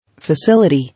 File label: "Facility", English